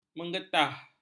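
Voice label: "menggetah", Malay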